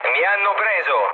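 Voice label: Italian aircraft destroyed mood low, speech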